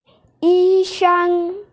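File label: "yisheng", Mandarin Chinese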